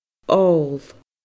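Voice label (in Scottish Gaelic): "ol fada", Scottish Gaelic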